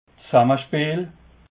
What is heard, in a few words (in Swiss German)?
"dsammespeel", alsace